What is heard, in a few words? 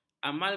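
"amalgam", Malay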